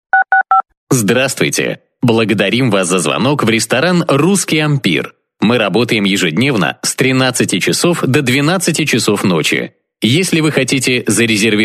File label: man